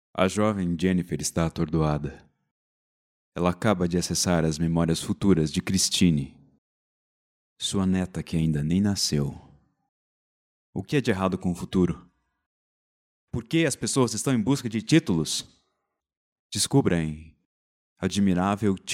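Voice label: Brazilian Portuguese, male, narration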